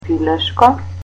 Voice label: "chicken", Macedonian